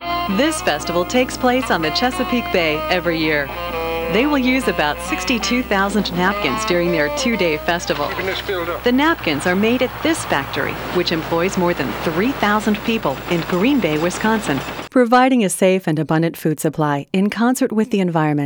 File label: Narration